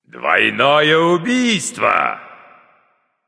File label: kill, russian; caster